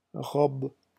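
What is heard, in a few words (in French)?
"robe", French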